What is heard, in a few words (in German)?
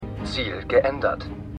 Maennl Fahrzeug Stimme; Foundation